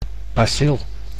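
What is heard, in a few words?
"bacil", Dutch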